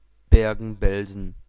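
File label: "Bergen Belsen" German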